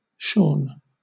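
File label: "shorn", British English; pronunciations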